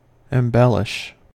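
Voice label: "embellish", US English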